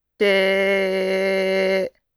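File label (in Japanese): "せ", Japanese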